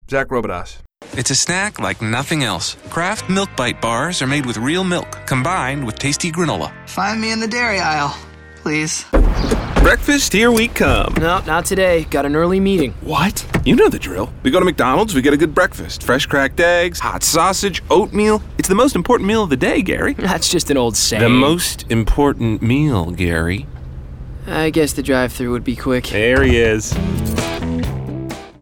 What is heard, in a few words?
commercial, men